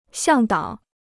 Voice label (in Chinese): "向导", Chinese